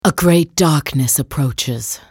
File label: attack, en US; Anivia